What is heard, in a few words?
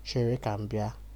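"sherekambia", Nigerian Pidgin, male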